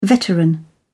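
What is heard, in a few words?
"veteran", British English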